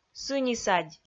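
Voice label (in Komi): "сынисадь", Komi, female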